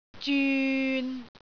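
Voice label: "zyun6", Cantonese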